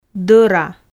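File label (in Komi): "дӧра", Komi, female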